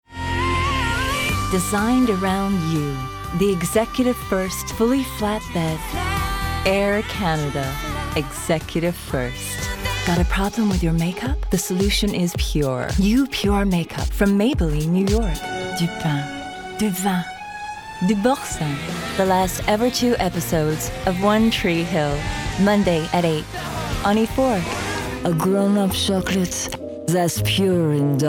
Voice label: Commercial Montage US FR